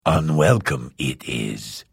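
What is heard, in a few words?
Hello, Vo; Morrowind GOTY GOG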